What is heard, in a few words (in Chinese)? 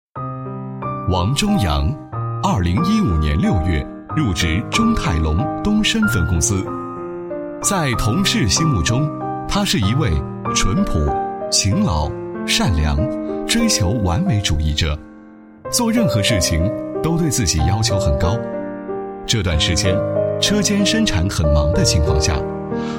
男 人物 简介; 中泰龙东升分公司员工王某简介